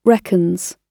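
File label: "reckons", British English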